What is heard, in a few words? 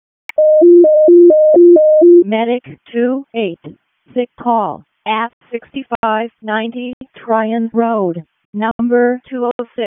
EMS ALERT, p25; wake